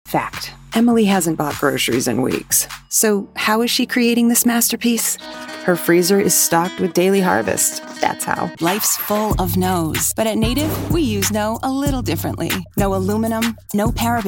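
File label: us commercial, American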